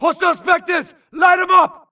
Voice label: grunt engaging Spectre; imc; Titanfall 2